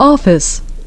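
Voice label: "office", English pronunciation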